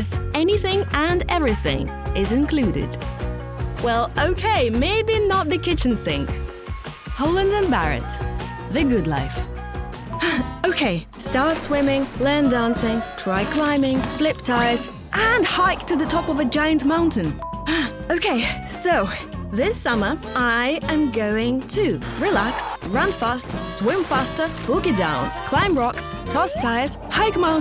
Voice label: female ukrainian english with soft ukrainian accent commercial; devine voices